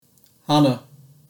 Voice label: "hana" Japanese

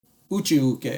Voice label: "Uchi Uke", Japanese; Basic Japanese Terms

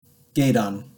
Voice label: "gedan", basic japanese words; Basic Japanese Terms

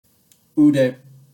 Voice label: "ude" Japanese

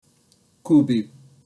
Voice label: "kubi" Japanese

